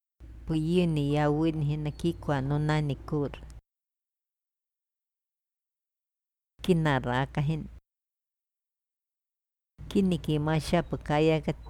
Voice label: iquito verbos